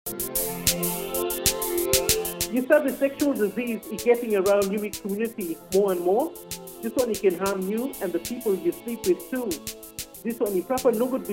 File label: Syphilis creole; Blackstar; NPA